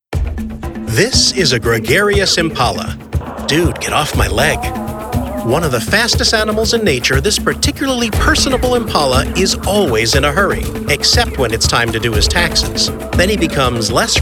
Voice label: EXPLAINER